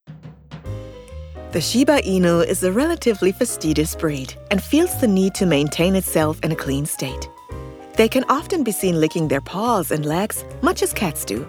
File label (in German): Dokumentation Hund englisch